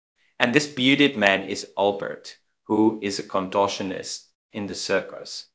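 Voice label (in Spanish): Voice Acting, Spanish, male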